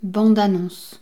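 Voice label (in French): "bande-annonce", French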